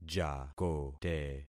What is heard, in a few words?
"jakote", concat syllables; Affixing experiment